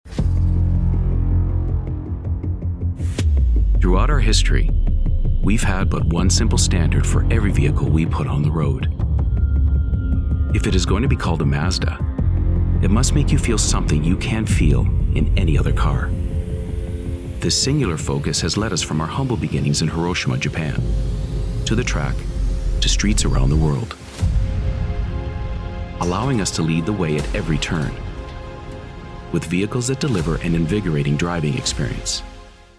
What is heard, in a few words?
male english canadian neutral north american accent commercial; mazda